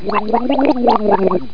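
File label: Sub pissed, Ships; Human Sounds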